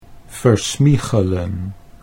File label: "versmiegelen", Dutch